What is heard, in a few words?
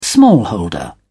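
"smallholder", English, British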